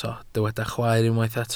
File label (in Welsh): "chwaer", Welsh